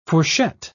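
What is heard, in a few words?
"fourchette", English, British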